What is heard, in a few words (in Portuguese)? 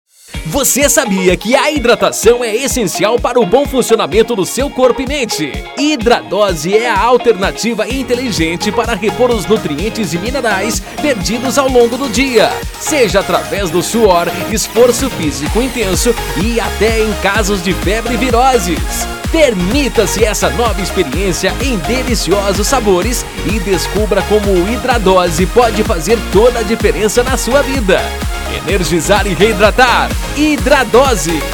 Spot; Hidradose